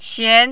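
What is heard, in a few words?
"xian2", mandarin, rising tone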